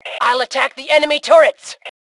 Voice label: Self Attack Turrets, Female; T2 Female 04